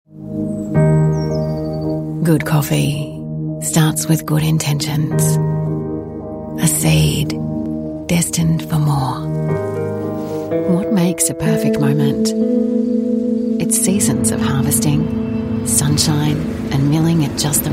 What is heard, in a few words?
australian voice commercial